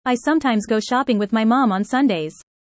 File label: fast